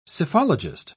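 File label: "psephologist", British English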